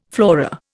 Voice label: "flora", Indian